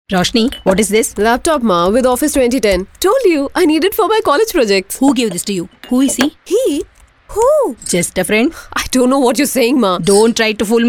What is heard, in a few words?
female voice; microsoft croma; mother